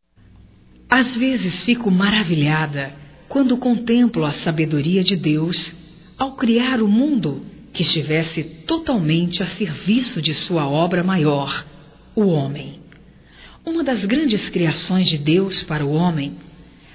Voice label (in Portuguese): Agradecendo Amizade Feminino